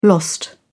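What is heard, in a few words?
"lost", British English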